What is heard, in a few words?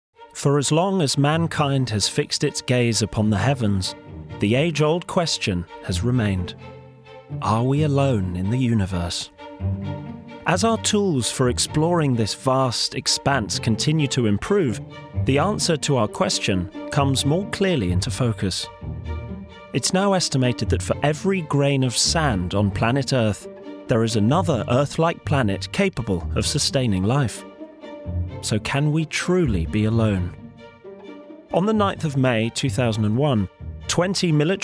doco